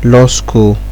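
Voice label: "law school", Nigerian Pidgin, male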